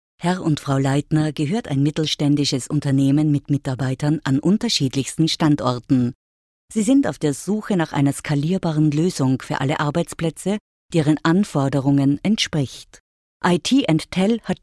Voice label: female german voice over narrative

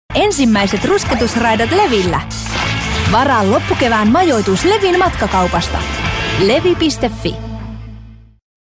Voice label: female finnish voice over sales

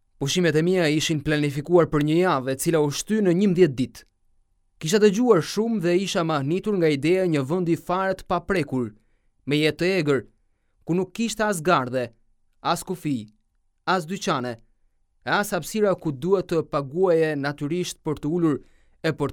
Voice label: male albanian voice over narrative

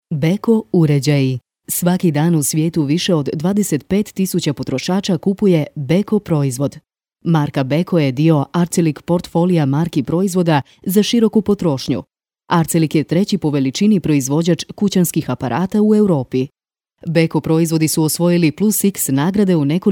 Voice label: female croatian voice over talent narrative

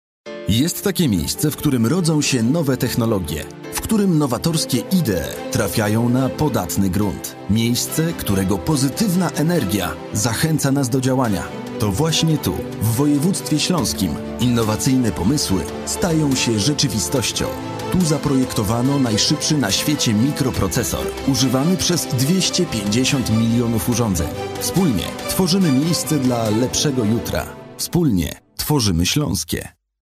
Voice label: male polish voice over talent sales

